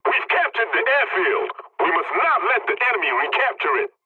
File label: pilot capture ground ally, english; War Thunder